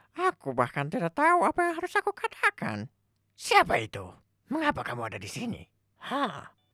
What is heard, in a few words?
Indonesia character